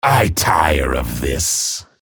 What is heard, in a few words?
chatter negative; respawn